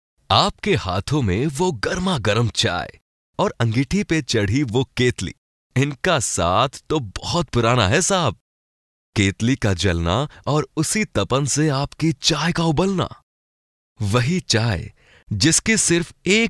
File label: Hindi Male